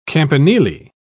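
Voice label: "campanile", British English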